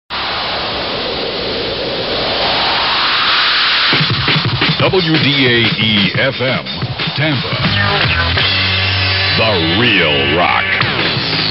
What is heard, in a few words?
Legal ID; WDAE; FM101; The Real Rock